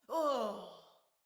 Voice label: Female, Battle Barks; Envoy